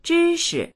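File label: "zhi1shi", Mandarin Chinese; 知识; 知識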